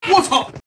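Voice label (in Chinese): 惊讶（卧槽）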